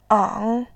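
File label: "ang", Mandarin Chinese, tone 3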